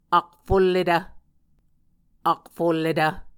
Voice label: "akfulletv", Muscogee